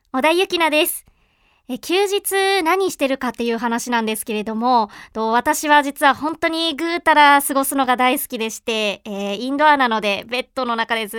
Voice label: Free Talk